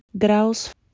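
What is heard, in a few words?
"gwreh2us", Proto-Indo-European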